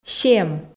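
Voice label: "сем", Komi, female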